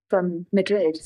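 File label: Voice Acting es, female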